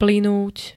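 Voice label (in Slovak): "plynúť", Slovenské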